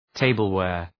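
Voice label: "tableware", English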